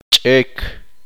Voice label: "rodilla", Uspanteko